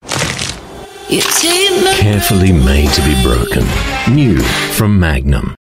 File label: AU Mid Atlantic English, English Australian Male; MAGNUM PINTS